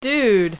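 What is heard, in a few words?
"dude", female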